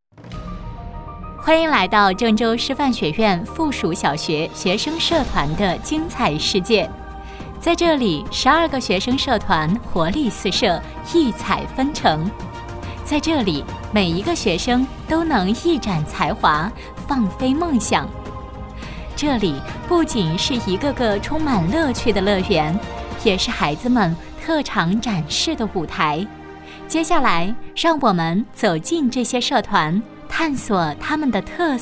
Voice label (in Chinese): 女 专题 学校学生社团风采解说